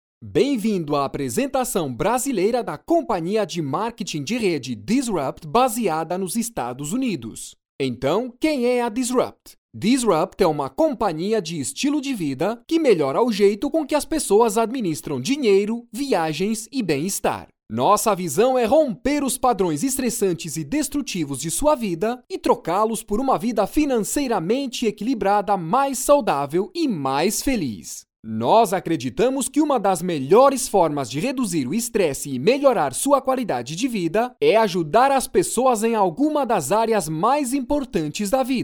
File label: portuguese male; dictor; dictor-sounds